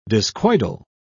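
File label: "discoidal", English, British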